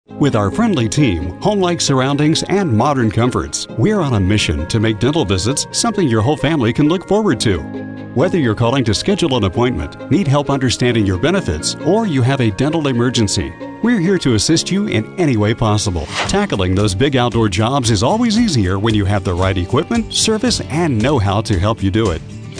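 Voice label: male On-Hold Messages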